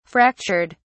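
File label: "fractured", English, British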